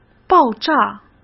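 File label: "爆炸", Mandarin Chinese; chinese flashcards; hsk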